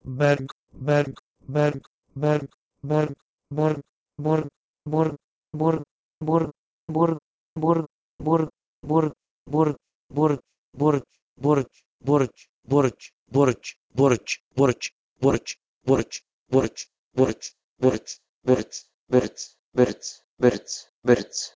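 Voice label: Proto-Indo-European bhergh to Kurdish berdz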